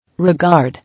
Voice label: "Regard", English